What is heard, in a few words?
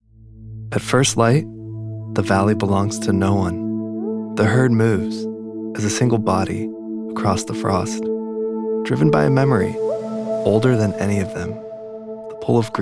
narration documentary, measured authoritative male